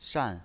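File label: "shan", Mandarin Chinese pinyin, fourth tone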